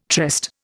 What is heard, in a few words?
"tryst", Indian